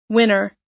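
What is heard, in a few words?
"winner", US English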